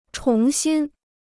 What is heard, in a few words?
"重新", Chinese